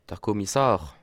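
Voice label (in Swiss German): "kommissar", Bas Rhin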